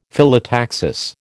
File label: "Phyllotaxis", American